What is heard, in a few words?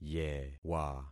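"yewa", concatenated syllables; Affixing experiment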